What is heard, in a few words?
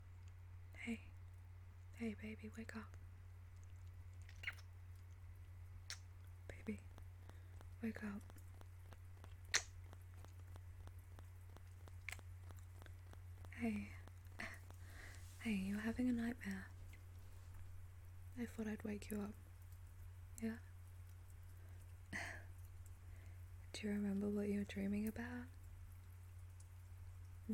Rain Ambience, Soft Voice; F4M; Wake You Up From A Nightmare; GFE; Lbombs; Reminiscing